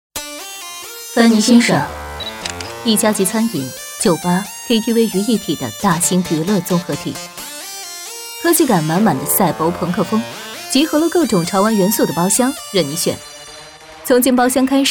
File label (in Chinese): 潮流赛博朋克广告